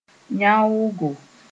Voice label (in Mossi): "yãoogo", moore